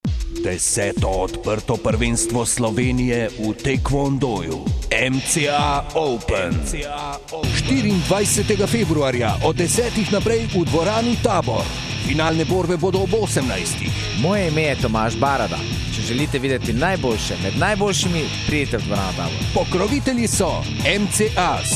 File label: radio advertisement; Mca Open '07; 10 MCA OPEN; Maribor